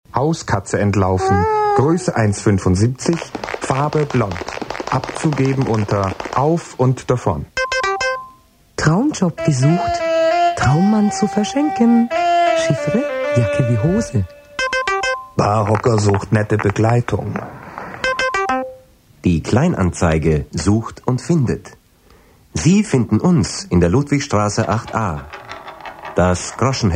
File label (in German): Werbung; Groschenheft